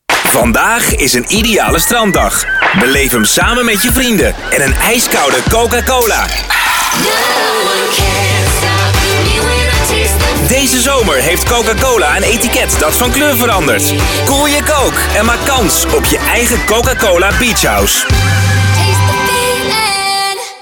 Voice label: Radio commercial; Coca-Cola Beachhouse